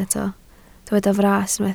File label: "fras", Welsh